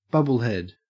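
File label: "bubblehead", Australian English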